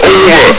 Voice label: "over!", Combine soldier; hl2